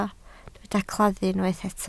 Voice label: "claddu", Welsh